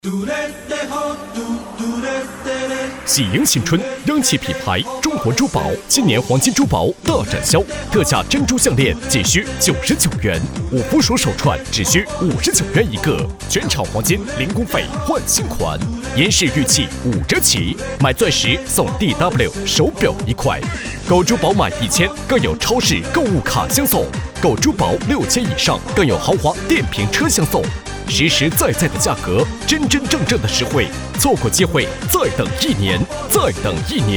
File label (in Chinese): 叫卖大气; 中国珠宝春节大促